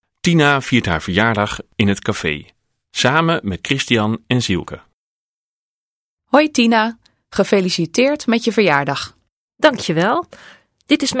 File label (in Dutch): dialoog